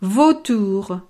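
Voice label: "vautour", French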